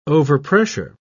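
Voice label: "overpressure", British English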